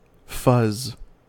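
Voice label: "fuzz", American English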